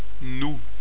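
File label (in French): "nous", French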